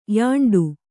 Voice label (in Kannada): "ಯಾಂಡು", Kannada